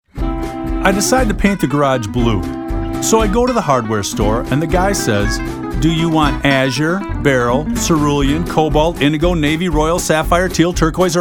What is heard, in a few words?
Commercial